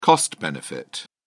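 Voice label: "cost benefit", British English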